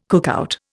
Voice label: "cookout", Indian

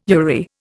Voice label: "URI", Indian

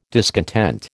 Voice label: "discontent", American

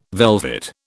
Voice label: "velvet", British

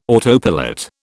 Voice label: "autopilot", British

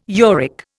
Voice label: "uric", Indian

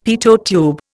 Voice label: "Pitot tube", Indian; Dictionary

